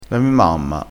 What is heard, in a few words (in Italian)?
"mi", crespinese